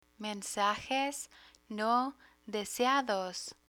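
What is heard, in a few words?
"mensajes no deseados", Spanish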